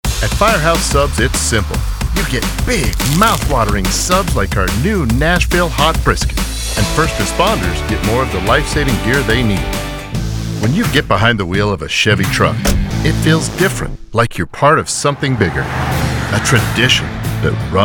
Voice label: Commercial